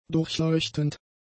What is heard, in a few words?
"durchleuchtend", german; adjectives